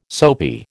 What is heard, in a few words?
"soapy", American